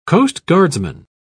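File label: "coastguardsman", British English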